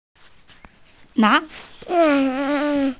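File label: "na2", Mandarin Chinese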